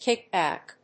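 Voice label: "kick back", English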